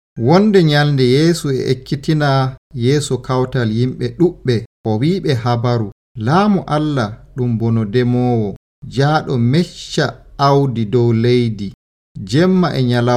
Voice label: Fulfulde Nigerian; Jesus Tells the Story of the Wheat and Weeds